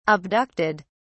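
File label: "abducted", British English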